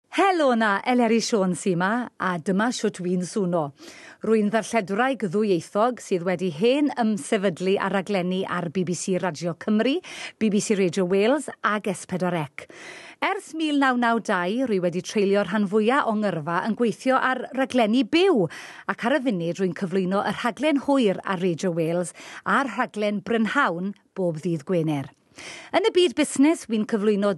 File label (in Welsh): Welsh; Eleri Sion